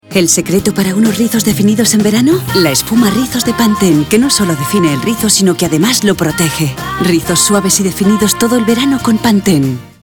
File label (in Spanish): Femenino